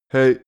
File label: male hey short